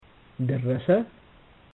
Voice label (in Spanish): "darrasa", amharico